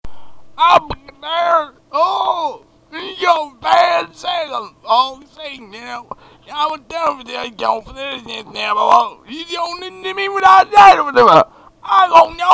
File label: unintelligible redneck